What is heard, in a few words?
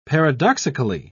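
"paradoxically", British English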